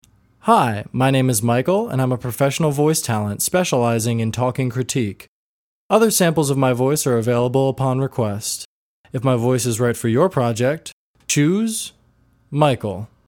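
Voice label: American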